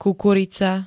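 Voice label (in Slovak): "kukurica", Slovak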